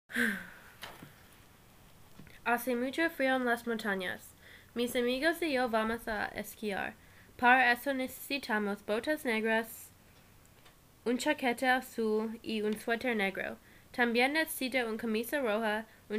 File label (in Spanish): spanish oral component